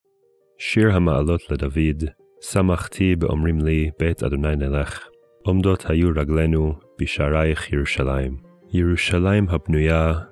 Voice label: poetry and prayer psalm hebrew